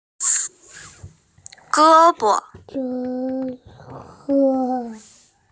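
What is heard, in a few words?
"ge bo", Mandarin Chinese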